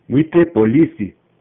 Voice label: police, swahili

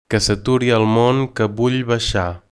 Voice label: stop the world, Catalan

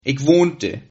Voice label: I miss you, Sylt Frisian

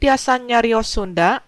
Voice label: do you speak, sundanese

